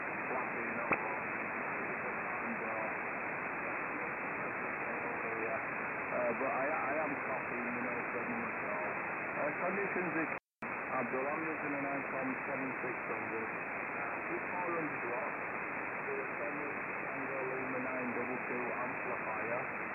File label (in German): Amateurfunk USB